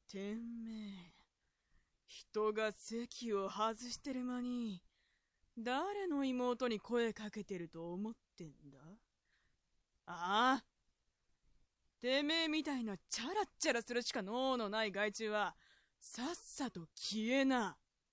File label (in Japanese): josei